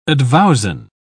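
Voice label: "advowson", British English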